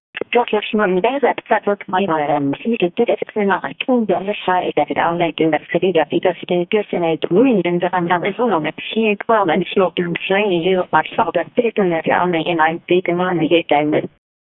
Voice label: a phone saying were sorry; apology